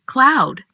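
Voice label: "Cloud", English; weather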